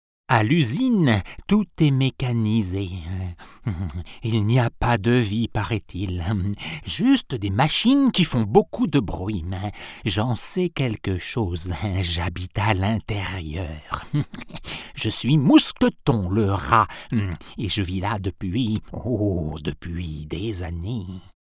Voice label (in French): voix off conte; habite usine